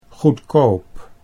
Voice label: "goedkoop", Dutch

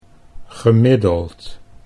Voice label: "gemiddeld", Dutch

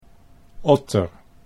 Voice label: "otter", Dutch

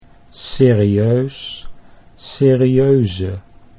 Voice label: "serieus serieuze", Dutch

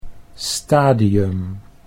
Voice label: "stadium", Dutch

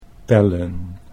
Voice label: "pellen", Dutch

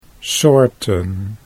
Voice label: "soorten", Dutch

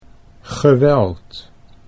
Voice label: "geweld", Dutch

